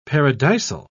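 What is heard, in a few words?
"paradisal", British English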